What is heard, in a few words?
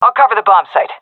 guarding bombsite, seal fem; vo; Counter Strike Model Pack; CS:GO